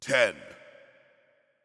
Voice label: Announcer Count 10; Paladins; Fdot